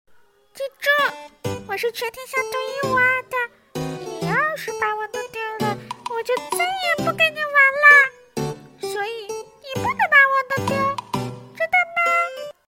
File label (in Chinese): 卡通